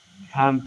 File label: Voice Acting, es